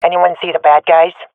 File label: lost sight enemy, swat fem; vo; agents; Source Model Packs; Counter Strike Model Pack; CS2